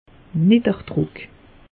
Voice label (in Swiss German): "nidderdruck", alsace; meteo